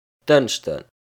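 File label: "Dënschden", Luxembourgish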